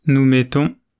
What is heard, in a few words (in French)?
"nous mettons", French